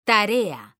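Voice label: "tarea", Spanish, female; mekanta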